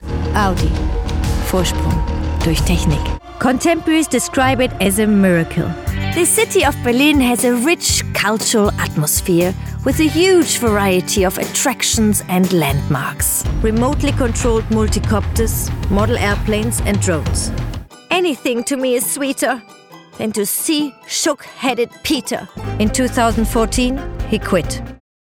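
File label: English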